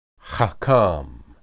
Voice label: "hakam", Hebrew